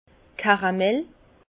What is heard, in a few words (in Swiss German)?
"karamel", Bas Rhin; vin; caramel